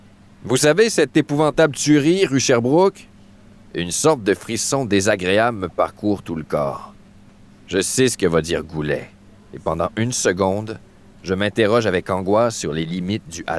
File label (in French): Narration